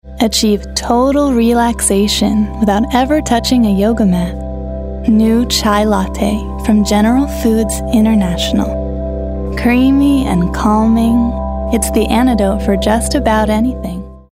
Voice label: smooth

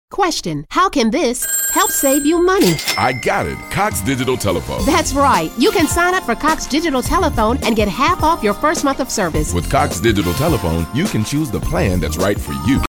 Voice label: announcer

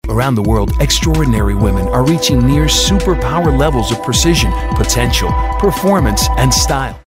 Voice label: soft spoken

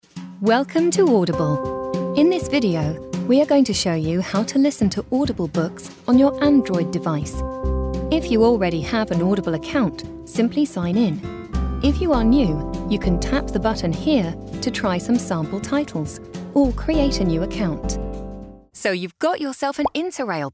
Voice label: E-Learning

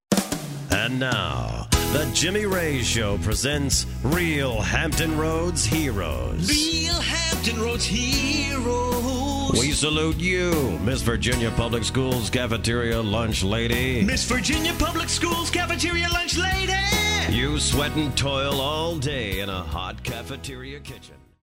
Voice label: impressions

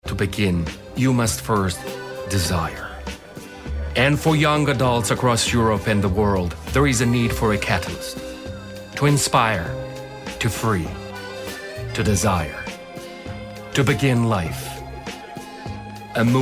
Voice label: Luxury Imaging

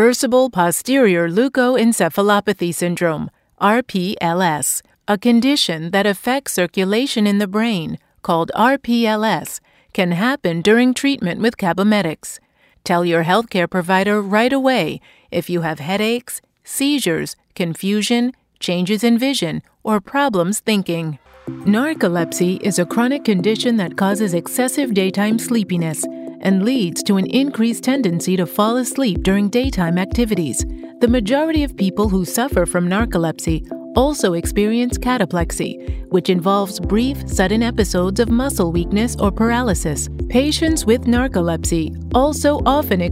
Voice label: Medical Narration

